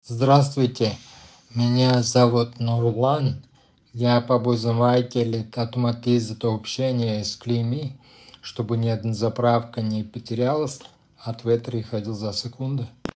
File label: ru